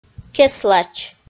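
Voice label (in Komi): "кеслач", Komi, female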